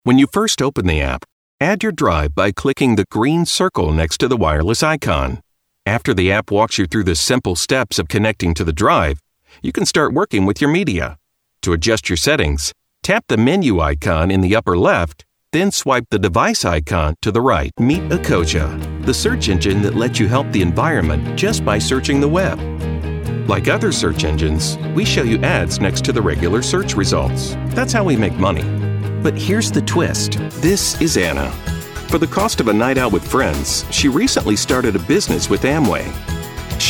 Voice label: E Learning